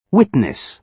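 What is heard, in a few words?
witness, British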